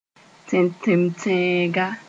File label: "tẽtemtẽega", Moore